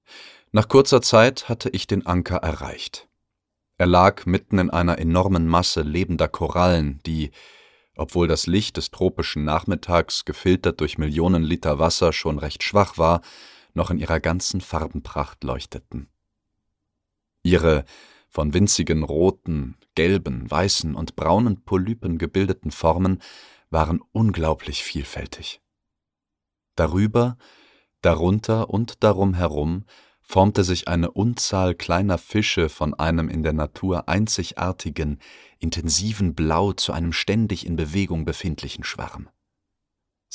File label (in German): Hörbuch; Die letzte Krypta